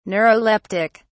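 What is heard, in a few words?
"neuroleptic", British English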